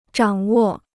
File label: "掌握", Chinese